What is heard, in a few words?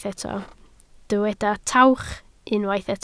"tawch", Welsh